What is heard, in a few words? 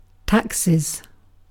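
"taxes", British English; pronunciation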